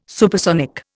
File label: "supersonic", Indian